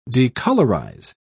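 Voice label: "decolorise", British English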